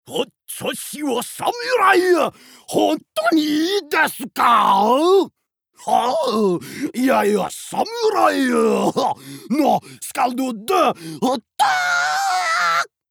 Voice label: no dub character samurai, norsk mann